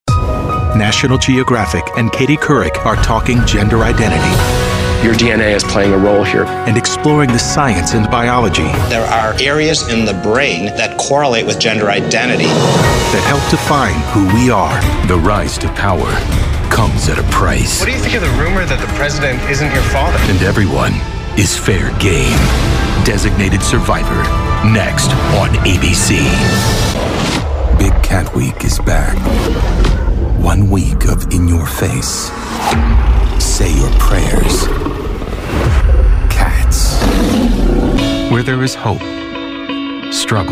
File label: men, promos; Warm and cinematic with an honest Midwestern credibility — authoritative enough to command attention, approachable enough to keep it.